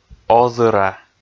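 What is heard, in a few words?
"озыра", Komi, male; kpv audio dict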